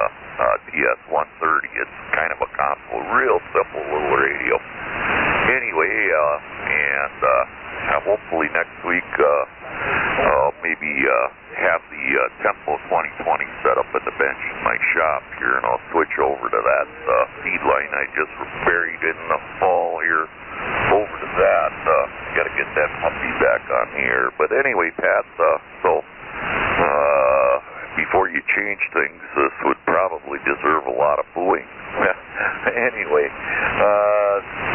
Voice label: Icom 728 & SM-6 Mic; Algoma, Wi.